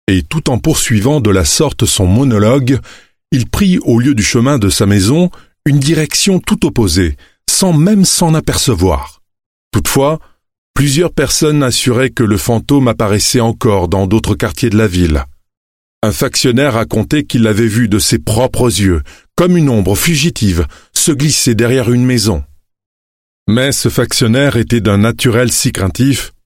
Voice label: French Audiobook